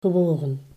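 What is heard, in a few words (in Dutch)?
"gewogen", Dutch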